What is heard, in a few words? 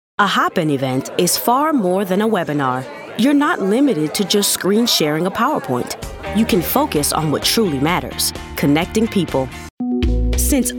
Narration, narration-female